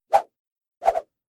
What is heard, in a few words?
small dance echo, hippie male